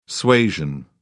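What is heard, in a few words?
"suasion", British English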